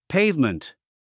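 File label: "pavement", English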